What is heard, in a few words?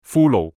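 "葫蘆", Cantonese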